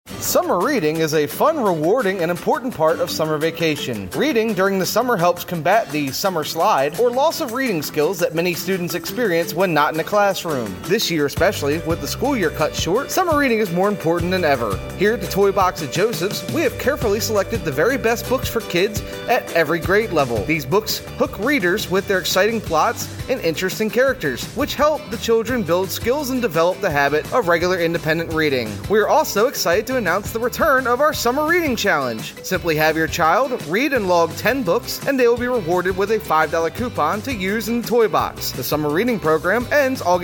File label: department store commercial; summer reading